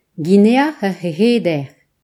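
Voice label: "equatorial guinea", Breton; gcompris; geography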